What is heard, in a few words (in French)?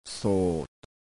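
"khap", ton bas, thailandaise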